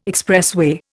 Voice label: "expressway", Indian

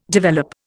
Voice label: "develop", Indian

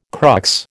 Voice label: "crux", American

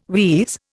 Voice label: "wheeze", Indian

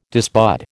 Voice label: "disbud", American

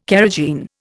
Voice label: "carrageen", Indian

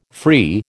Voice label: "free", American

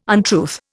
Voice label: "untruth", Indian

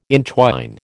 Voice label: "entwine", American

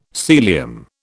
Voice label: "Sealyham", British

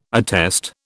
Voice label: "attest", British

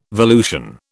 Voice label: "volution", British

